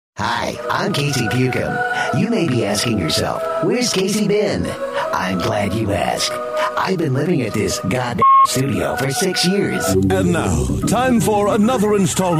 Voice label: Characters Male